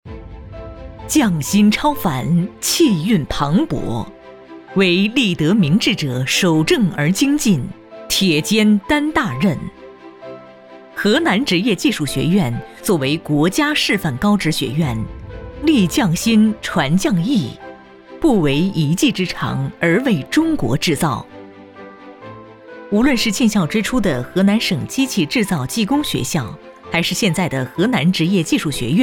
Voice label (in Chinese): 女 专题 大气 学校宣传解说